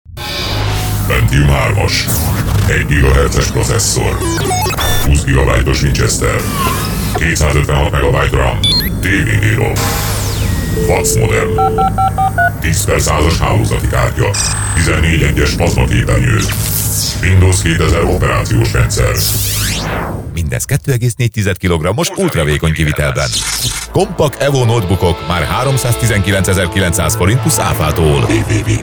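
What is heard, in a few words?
hungarian male voice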